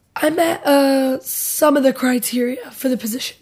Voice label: interviewee female